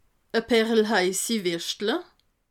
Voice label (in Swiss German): "paarel", alsace